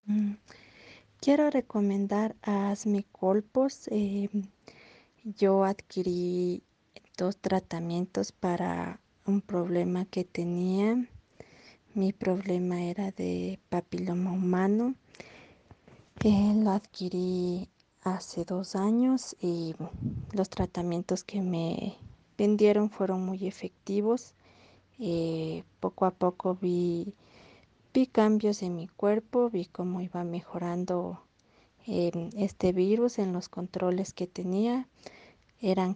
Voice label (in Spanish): testimonio